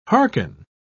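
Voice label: "harken", British English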